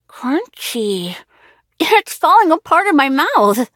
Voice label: levelup, en; cookierunwiki